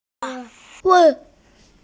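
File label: "zuo wei" Chinese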